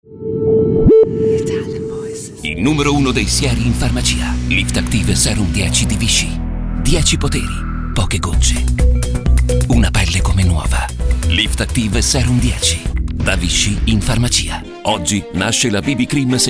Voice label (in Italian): Italian voices, Beauty Health Care, male voices